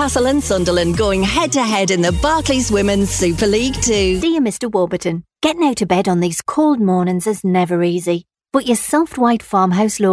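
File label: north east accent geordie, Natural Female Voice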